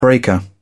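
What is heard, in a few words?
"breaker", British English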